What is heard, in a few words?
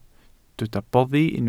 "boddi", Welsh